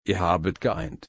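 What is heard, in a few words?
"einen", German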